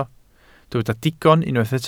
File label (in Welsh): "digon", Welsh